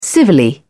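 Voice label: "civilly", British English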